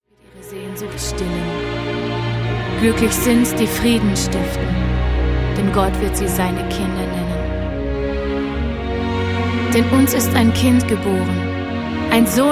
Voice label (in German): lesung